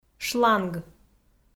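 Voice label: "шланг", Komi, female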